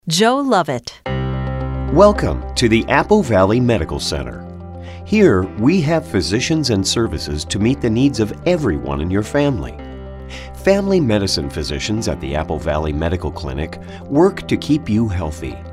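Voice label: Narration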